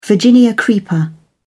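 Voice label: "virginia creeper", English, British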